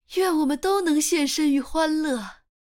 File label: zh-cn; nilah